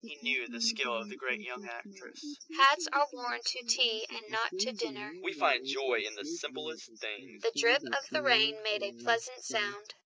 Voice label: anechoic; SiSEC08; SiSEC headgeometry